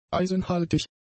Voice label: "eisenhaltig", german; adjectives

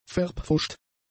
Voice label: "verpfuscht", german; adjectives

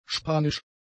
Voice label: "spanisch", german; adjectives

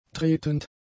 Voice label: "tretend", German; adjectives

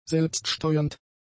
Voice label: "selbststeuernd", German; adjectives

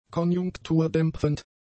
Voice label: "konjunkturdämpfend", German; adjectives

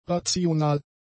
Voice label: "rational", German; adjectives

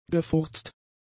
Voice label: "gefurzt", german; adjectives

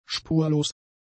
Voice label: "spurlos", German; adjectives

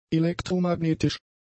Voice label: "elektromagnetisch", german; adjectives

